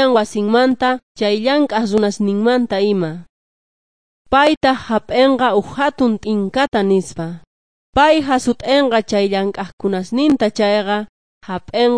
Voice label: jesus lord and saviour quechua s bolivian cochabamba